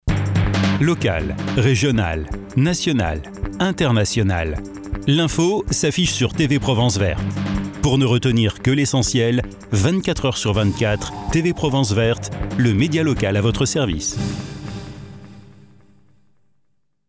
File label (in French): TV VOIX OFF